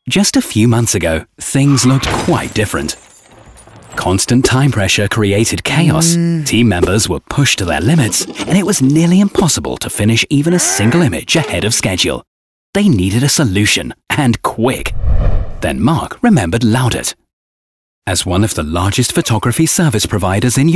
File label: explainer film; laudert